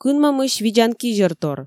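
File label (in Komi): "кынмӧмысь видзан кизьӧртор", Komi, female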